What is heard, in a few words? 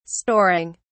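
"storing", English, British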